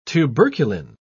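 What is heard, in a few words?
"tuberculin", British English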